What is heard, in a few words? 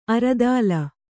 "ಅರಿದಲ", Kannada